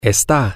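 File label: he she it is, spanish, male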